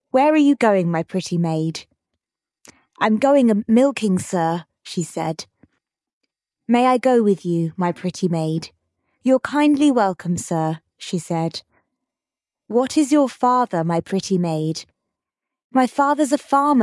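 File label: female British; Where Are You Going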